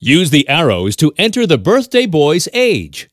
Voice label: age boy vo

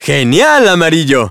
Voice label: Spanish - dialogue, Game Results Winner

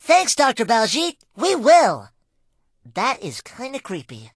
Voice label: English Voice End; Disney; Phineas and Ferb; The Transportinators of Doooom

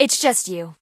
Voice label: English Voice

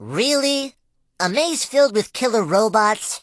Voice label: English Voice

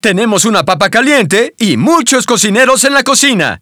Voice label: hotpotato welcome vo, Spanish

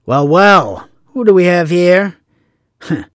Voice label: guard male; lucius ii the prophecy

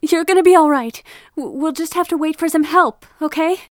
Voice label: Voices female,findbody; lucius ii the prophecy

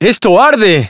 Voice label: Blowout Expressions, Spanish

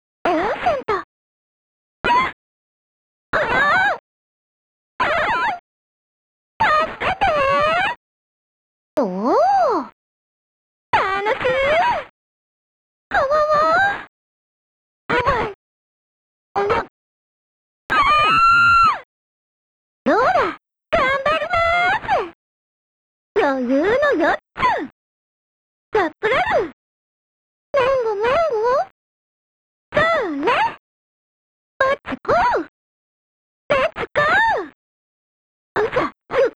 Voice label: Japanese Voice Collection (8-bit Version)

